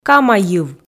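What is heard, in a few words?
"кама йыв", Komi, female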